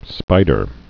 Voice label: "spider", English

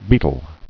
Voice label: "beetle", English

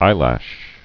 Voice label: "eyelash", English

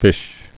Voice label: "fish", English

